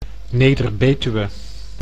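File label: "Neder-Betuwe", Dutch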